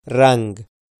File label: "rang", Pashto